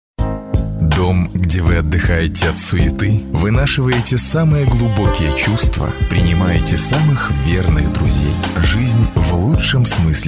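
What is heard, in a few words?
Russian Russia male sales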